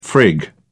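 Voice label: "frig around", British English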